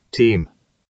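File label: "teem", British; pronunciations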